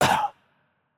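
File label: French hurt light voice; bloom minigame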